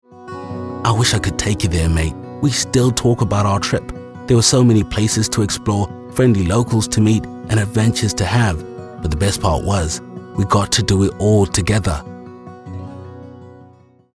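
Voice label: Tourist, Conversational Male Voice Over, Deep Male Voice Over, African Male Voice Over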